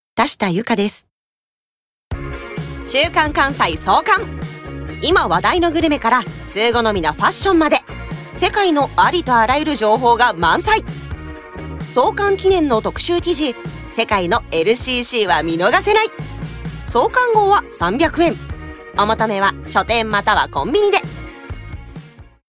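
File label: commercial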